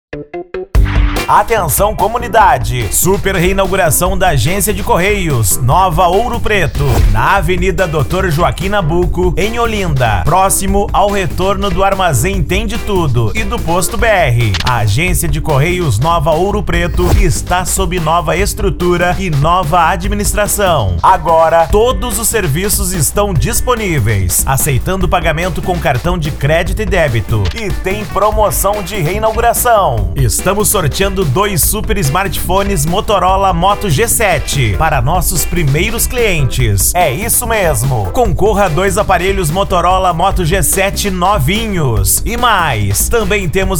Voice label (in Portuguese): Locutor; Correios Nova Ouro Preto Olinda PE